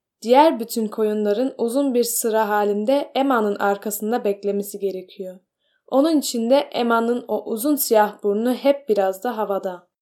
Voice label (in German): türkisch